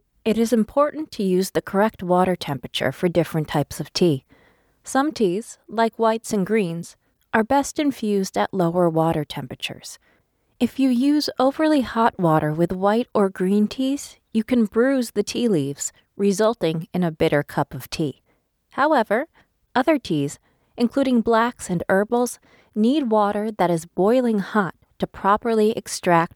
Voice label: Training Industrial; English (North American)